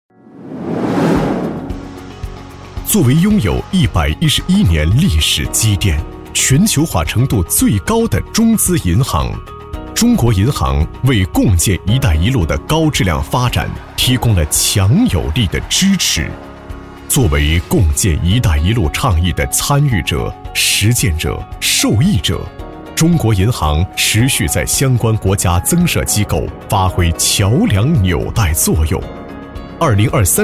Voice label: 男 宣传片; 中国银行境外资产

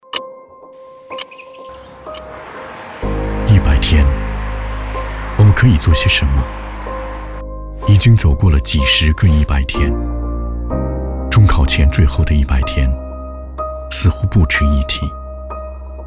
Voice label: 男 走心风格; 老师

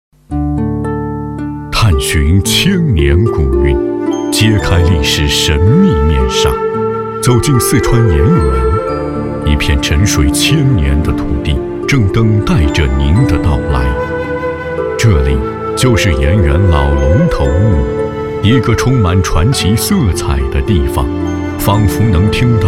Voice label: 男 专题; 墓葬介绍; 老龙头墓

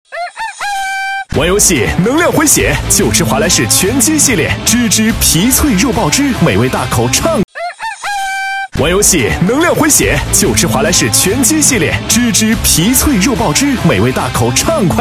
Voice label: 男 广告激情; 华莱士

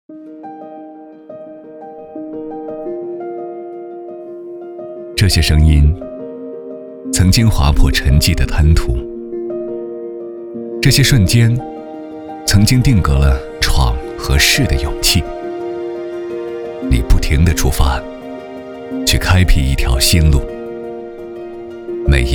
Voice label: 男 独白; 与你同行

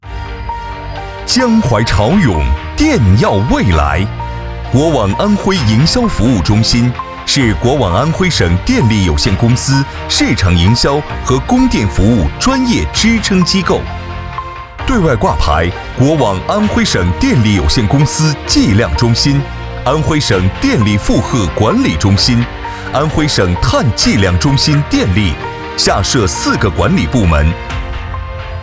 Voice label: 男 专题片

